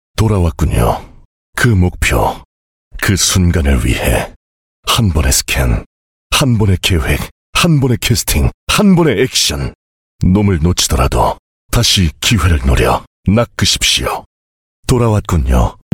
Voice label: Korean